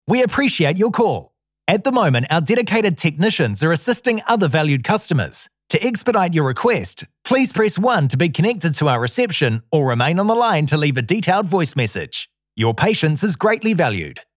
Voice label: Phone